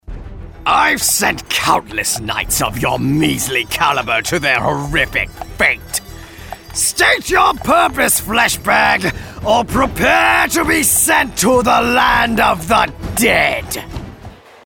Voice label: Fantasy Villain

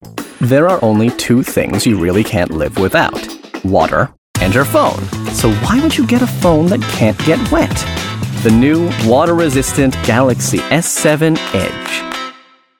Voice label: Phone Witty Snarky

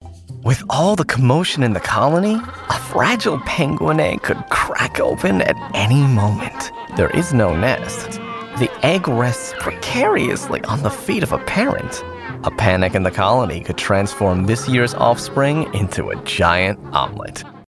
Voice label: Documentary Nature

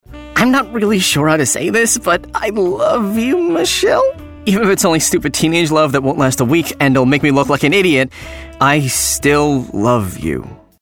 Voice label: Romance Teen

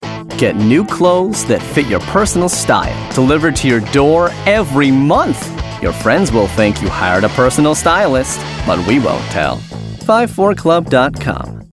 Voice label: Clothing Cool Trendy

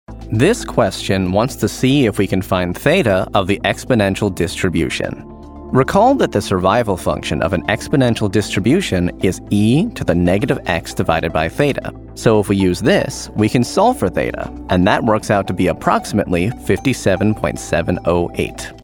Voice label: E-Learning Math

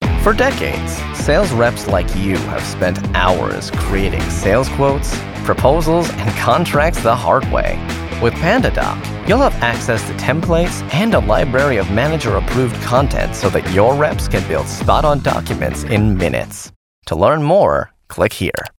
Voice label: Explainer Office

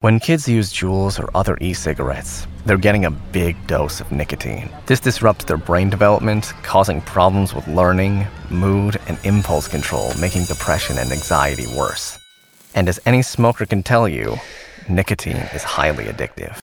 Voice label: PSA Medical

